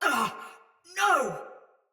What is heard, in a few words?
Damage Soldier Mace Agent Female; Battle; Sinecure Melee